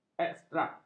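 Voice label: "ekstrak", Malay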